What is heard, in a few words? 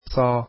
"saad", Arabic alphabet